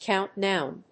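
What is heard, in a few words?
"count noun", English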